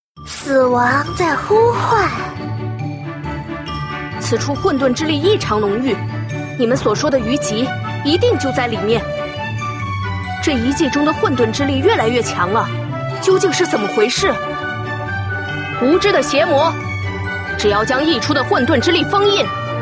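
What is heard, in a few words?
female lively shota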